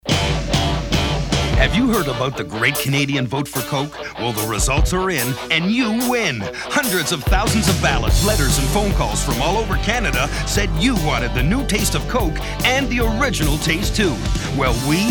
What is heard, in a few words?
Coca Cola Classic Announcement